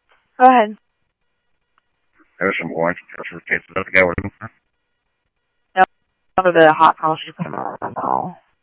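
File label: Mesa Police Department, scanner audio; Mesa Simulcast; MESA PD Superstn MesaPoliceDep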